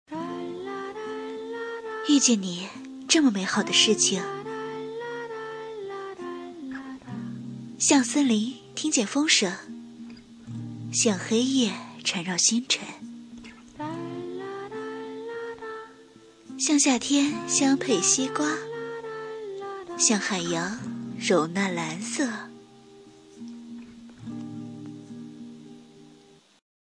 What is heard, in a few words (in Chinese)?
女5号抒情广告 婚恋广告